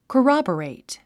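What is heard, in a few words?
"corroborate", English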